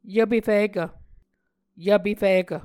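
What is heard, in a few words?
"yvpefikv", Muscogee word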